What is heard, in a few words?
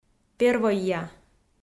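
"первойя", Komi, female; audio dict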